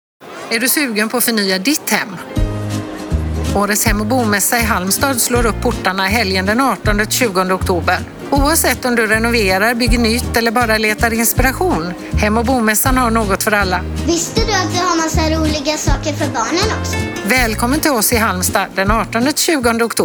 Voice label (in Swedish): Reklam; Hem Bomassan